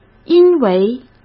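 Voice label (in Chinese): "因为", Mandarin Chinese; hsk; chinese-flashcards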